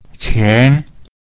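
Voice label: "qian" money, Mandarin Chinese pinyin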